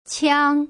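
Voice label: "qiang", Putonghua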